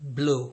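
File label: "blow", Nigerian Pidgin, male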